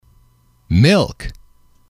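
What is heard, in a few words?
"milk", English, male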